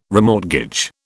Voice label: "remortgage", British

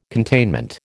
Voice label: "containment", American

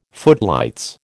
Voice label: "footlights", American

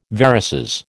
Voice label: "varices", American

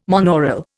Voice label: "monaural", Indian

